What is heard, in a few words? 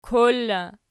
"kor" in, Archi